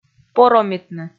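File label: "поромитны", Komi, female; kpv audio dict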